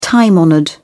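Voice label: "time honoured", British English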